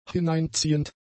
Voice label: "hineinziehend", German; adjectives